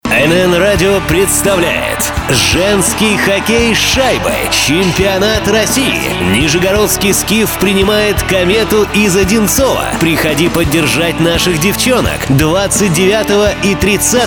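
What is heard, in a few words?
Radio announcement; SKIF Kometa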